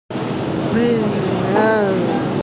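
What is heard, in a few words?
"ping2an1", Mandarin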